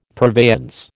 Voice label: "purveyance", American